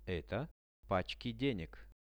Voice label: "eto pachki deneg", Russian; Это пачки денег.

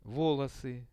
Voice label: "volosy", Russian; волосы; Это волосы.; visual dictionary

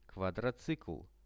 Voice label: "kvadrocycl", Russian; квадроцикл; transport; RUSSIAN VISUAL DICTIONARY

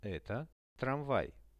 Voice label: eto tram, Russian; Это трамвай.; transport; visual-dictionary

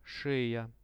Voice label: "sheja", Russian; шея; Это шея.; visual dictionary

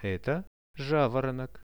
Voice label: "eto javoronok" Russian; Это жаворонок; birds; RUSSIAN VISUAL DICTIONARY

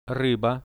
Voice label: "ryba" Russian

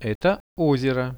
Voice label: "eto ozero", Russian; Это озеро; nature; priroda; RUSSIAN VISUAL DICTIONARY

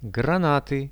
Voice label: "granaty", Russian; гранаты

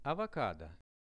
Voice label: "avocado", Russian; авокадо

